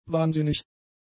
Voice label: "wahnsinnig", German; adjectives